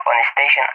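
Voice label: "on station", English; Messages; DCS; Allied Flight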